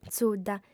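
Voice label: "tzudda", lingua sarda, female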